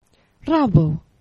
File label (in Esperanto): "rabo", esperanto; geneva